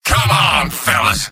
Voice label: jeers; engineer; video games; source engine; tf; mvm